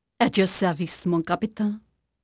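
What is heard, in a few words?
French female; Pirates Of The Caribbean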